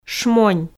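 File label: "шмонь", Komi, female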